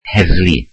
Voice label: welsh police